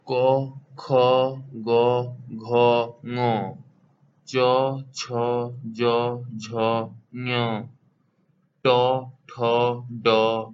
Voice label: consonants, bengali